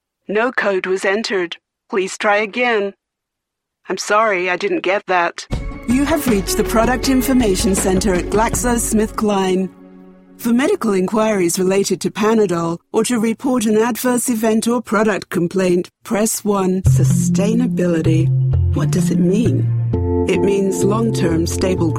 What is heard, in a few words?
Telephone